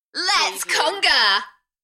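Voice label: UK female, Enthusiastic, DJ vocals; Lets conga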